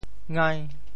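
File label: "ngai5", Teochew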